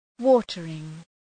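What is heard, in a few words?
"watering", English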